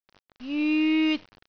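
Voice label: "jyut3", Cantonese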